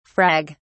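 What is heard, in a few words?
"frag", English, British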